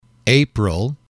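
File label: "april", English, male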